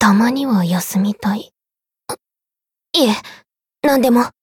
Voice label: voice jp; maturika